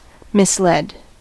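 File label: "mislead", US English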